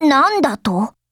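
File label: TIP, Japanese; AEK999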